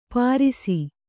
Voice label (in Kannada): "ಪಾರಿಸಿ", Kannada; pārisi; Iranian; Persian.